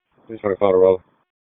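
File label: Raleigh Police Northeast District Dispatch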